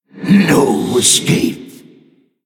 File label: Male Draenei Attack